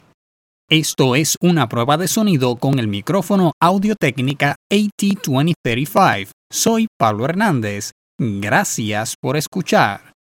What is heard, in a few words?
portable vocal booth test, uncompressed amplified only eq